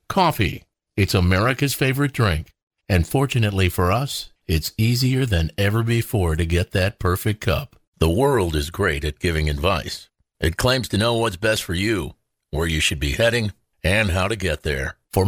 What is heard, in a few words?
Raw voice